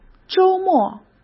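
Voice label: "周末", Mandarin Chinese; chinese flashcards; hsk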